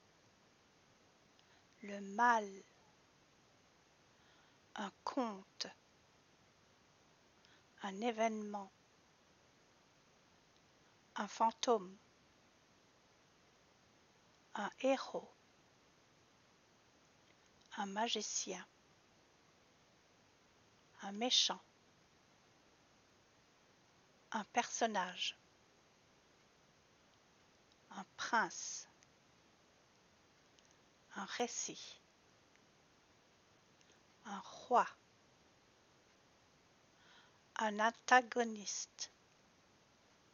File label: narration; unit 10 il etait une fois